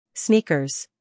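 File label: "humor", British; sneakers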